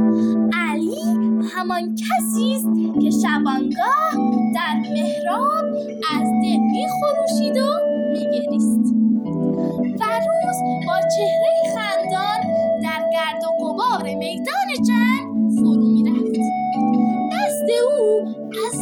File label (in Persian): دکلمه; دکلمه ای به مناسبت میلاد حضرت علی ع; خراسان رضوی; در وصف امام خوبی ها